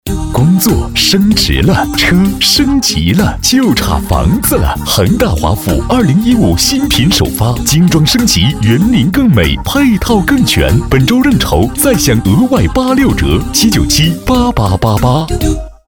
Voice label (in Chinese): 广告 男; 恒大华府